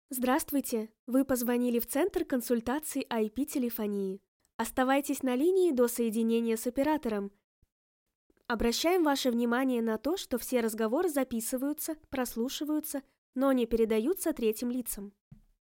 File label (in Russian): автоответчик